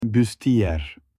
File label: crop top in turkish